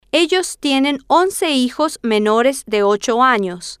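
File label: ellos Tienen Once Hijos Menores De Ocho Anos, Spanish, female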